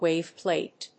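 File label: "WAVE PLATE", English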